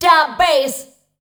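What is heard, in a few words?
vocal female, Dance Vocals, spoken words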